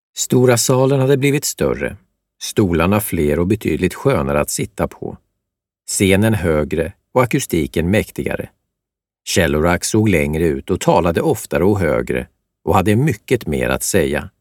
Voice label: Audiobook M